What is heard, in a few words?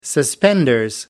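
"suspenders", British English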